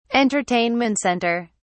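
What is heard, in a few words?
"entertainment center", English, British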